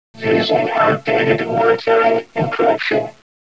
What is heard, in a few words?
robot voice; valve; cinima